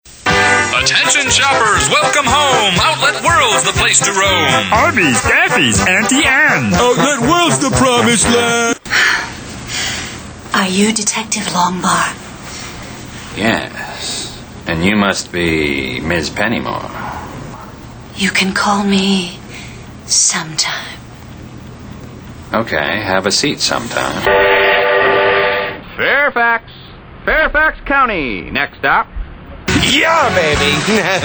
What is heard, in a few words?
VOICE CHARACTERS; on camera demo reels